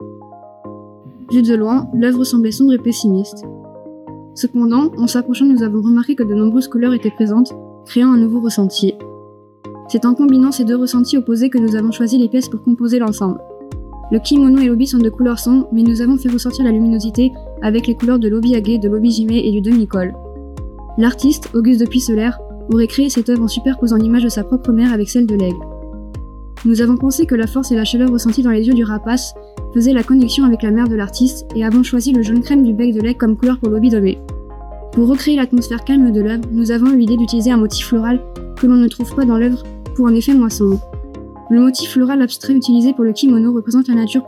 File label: French